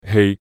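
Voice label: "hei3", Cantonese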